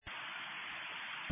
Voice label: Sheriff Dispatch